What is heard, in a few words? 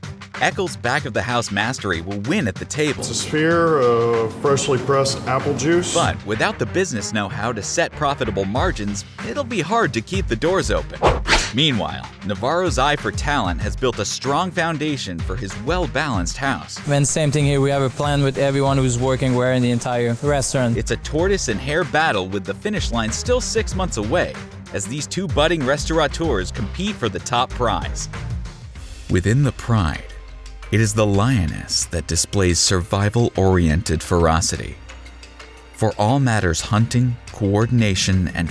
TV Narration